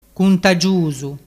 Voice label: "cuntagiusu", dialettu